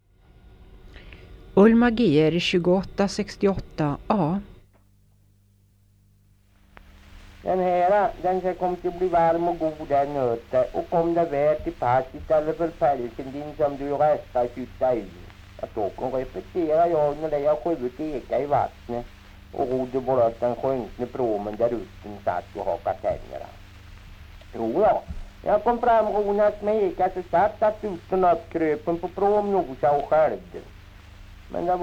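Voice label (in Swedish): Kroppa Värmland, dialekter; Folkminnen; Svenska sagor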